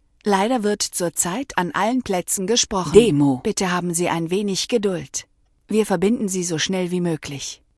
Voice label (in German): Ansage Automatische Vermittlung